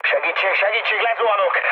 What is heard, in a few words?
aircraft destroyed, speech hun; War Thunder